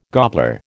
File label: "gobbler", American